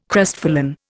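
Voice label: "crestfallen", Indian English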